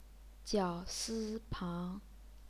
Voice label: "jiao si pang", Chinese